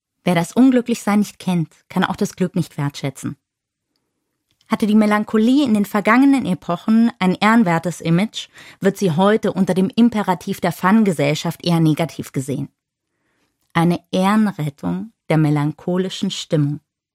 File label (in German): Audio Anmoderation